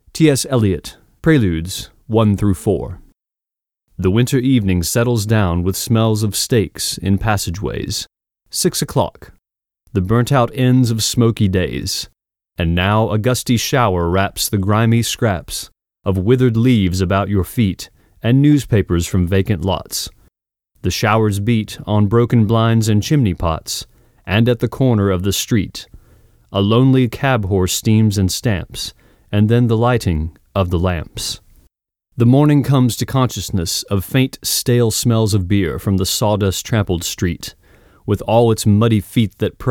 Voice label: Poetry Narration Voiceover Dry